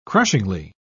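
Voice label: "crushingly", British English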